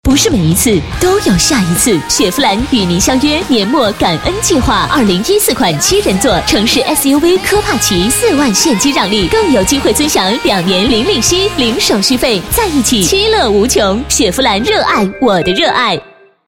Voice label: 女 广告, 国语女声配音; 优雅; 雪佛兰 年末感恩计划

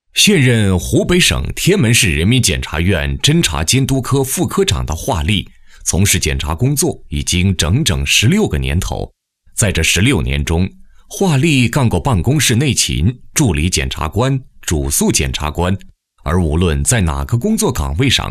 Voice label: 男 检察官华丽（磁性 讲述）, 国语男声配音

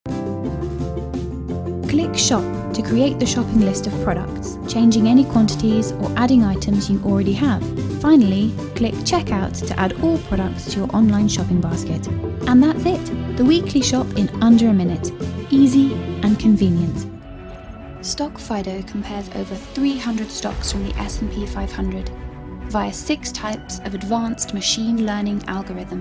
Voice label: 外籍英女 英式 使用指南

